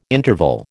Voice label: "interval", American

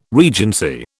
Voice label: "regency", British

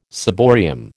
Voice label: "ciborium", American

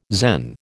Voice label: "Zen", American

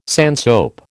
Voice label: "sandsoap", American

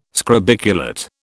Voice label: "scrobiculate", British

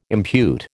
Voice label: "impute", American

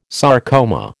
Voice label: "sarcoma", American

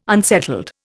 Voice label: "unsettled", Indian

